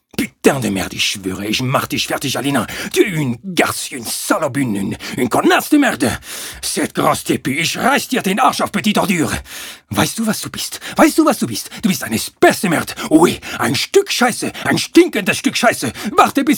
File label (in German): Hoerspiel; Alina Fox; Pierrre Le Loup